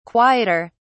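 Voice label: "quieter", British English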